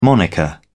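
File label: "moniker", English, British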